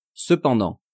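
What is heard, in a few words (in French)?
"cependant", French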